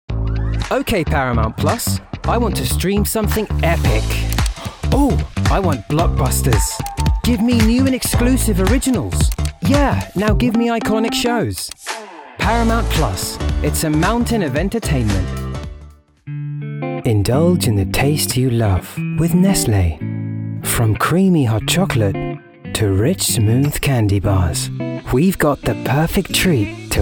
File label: Commercial English